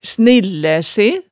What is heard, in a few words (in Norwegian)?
"snillæ se", hemsedalsmaalet